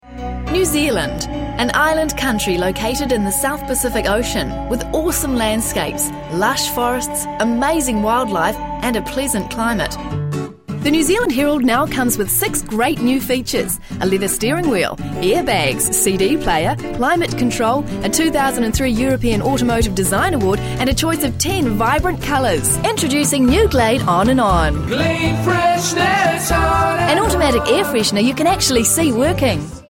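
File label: English New Zealand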